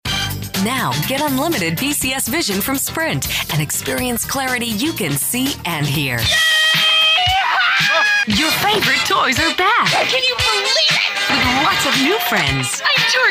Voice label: Commercial